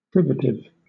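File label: "privative", British English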